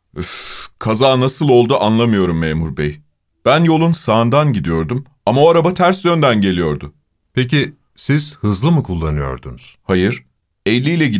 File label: dialog